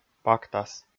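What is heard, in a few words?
"пактас", Komi, male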